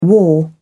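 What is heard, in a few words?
"war", English, British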